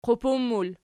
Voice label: "qupun", Archi